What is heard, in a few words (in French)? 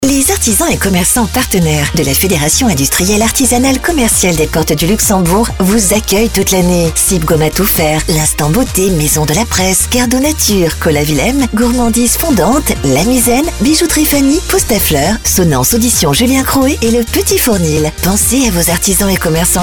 Spot; PORTES DU LUXEMBOURG; Fiac octobre 2024; spots publicitaires